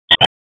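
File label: Raleigh Police Special Operations Tactical, p25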